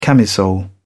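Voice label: "camisole", British English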